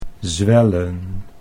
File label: "zwellen", Dutch